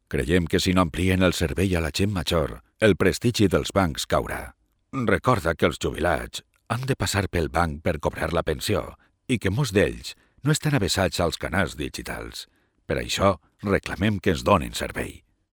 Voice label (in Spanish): locutor valenciano 60; voces ancianos